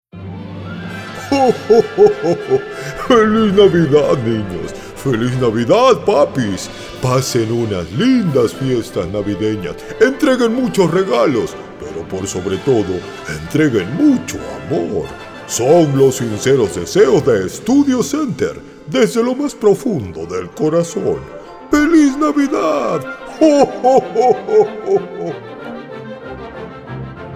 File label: Christmas Santa Spanish